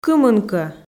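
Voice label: "кымынкӧ", Komi, female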